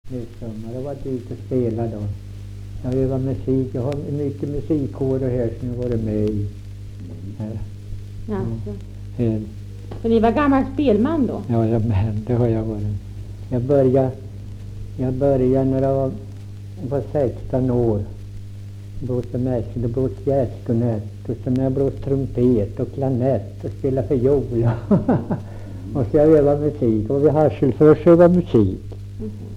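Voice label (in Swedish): Ramundeboda Närke, dialekter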